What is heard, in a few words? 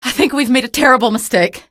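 citizen buddy killed, female; vo; npc; source engine; episodic; episode 1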